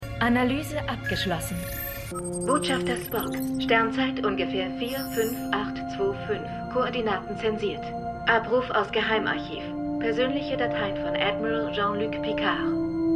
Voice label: Discovery Computer; Star Trek Discovery